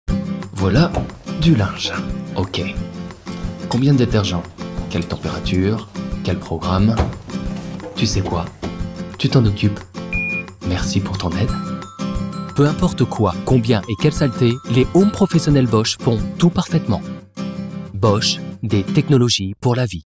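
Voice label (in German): French, Sanft; Fremdtake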